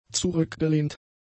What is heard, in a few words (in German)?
"zurückgelehnt", German; adjectives